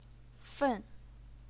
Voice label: "fen4", chinese pinyin